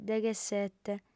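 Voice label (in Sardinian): "deghesete", female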